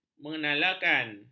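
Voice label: "menalakan", Malay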